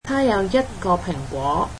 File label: cantonese she only has one apple